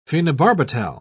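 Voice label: "phenobarbital", British English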